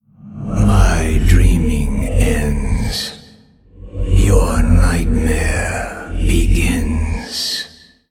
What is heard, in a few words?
Male Old God; card sounds